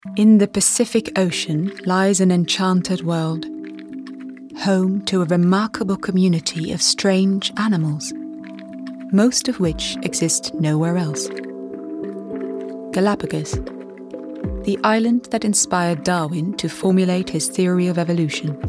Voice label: Documentary; Galapagos

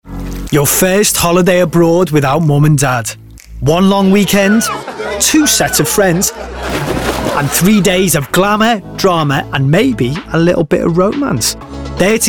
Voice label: Narration; Magaluf

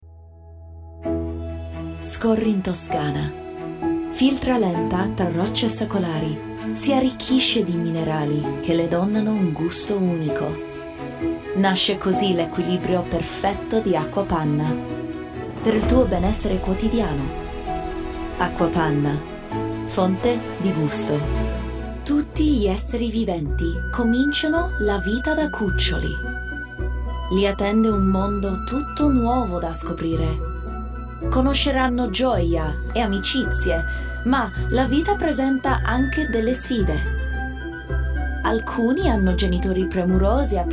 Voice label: ITALIAN VO

